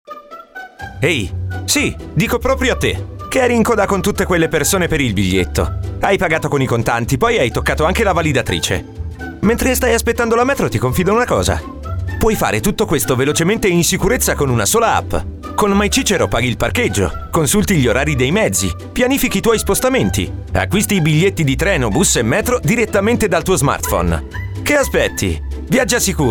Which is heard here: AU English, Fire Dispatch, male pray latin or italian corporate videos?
italian corporate videos